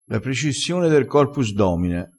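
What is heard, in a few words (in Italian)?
"corpus domine", dialettale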